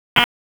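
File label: Raleigh Police Southeast District Dispatch, p25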